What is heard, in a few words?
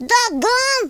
collect, Blues Man speech